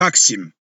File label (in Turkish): "Taksim", Turkish; turkish phrases for tourists